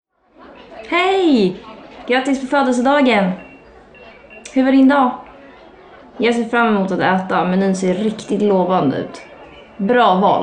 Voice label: dinner swedish with